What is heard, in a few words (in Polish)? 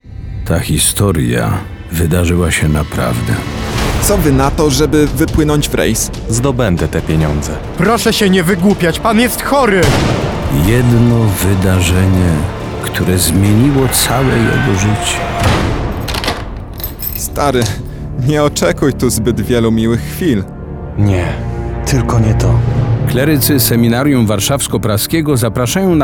Spot radiowy